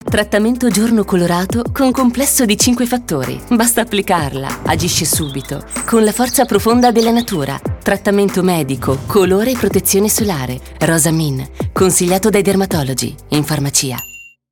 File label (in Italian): Rosamin commercial Dermasence voce di